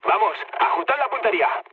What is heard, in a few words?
player friendly fire, mood low, speech